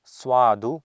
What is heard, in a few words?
"swadu", Sanskrit